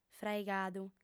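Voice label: "fraigadu", Sardinian, female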